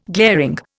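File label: "glasnost", Indian; Dictionary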